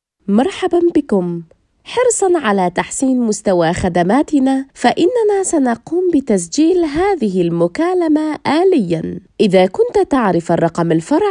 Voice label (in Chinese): 阿拉伯语女 外语 小语种